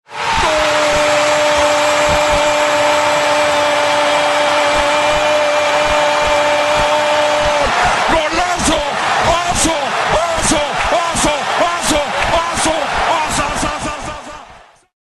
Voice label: Soccer Announcer Mexico